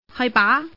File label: woman; mahjong paohuzi; youxian